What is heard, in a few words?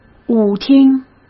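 "舞厅", Mandarin Chinese; hsk; chinese flashcards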